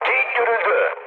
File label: enemy detected, mood high, speech tur